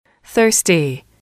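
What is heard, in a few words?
"thirsty", English, female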